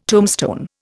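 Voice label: "tome", Indian; Dictionary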